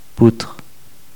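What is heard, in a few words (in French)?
"poutre" French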